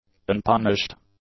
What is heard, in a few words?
"unpunished", english; adjectives